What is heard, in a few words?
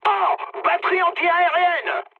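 damaged aa, mood high, French; War Thunder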